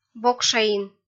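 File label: "бокшаин", Komi-Zyrian, female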